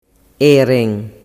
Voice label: "rene", dialettu